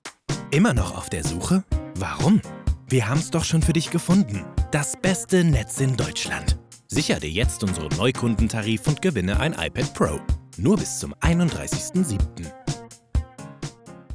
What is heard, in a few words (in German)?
werblich